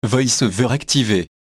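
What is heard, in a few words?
French VoiceOver On; EFI Audio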